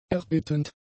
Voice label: "erbittend", German; adjectives

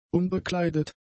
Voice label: "unbekleidet", German; adjectives

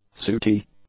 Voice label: "sooty", english; adjectives

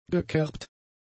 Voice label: "gekerbt", German; adjectives

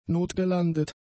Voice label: "notgelandet", German; adjectives

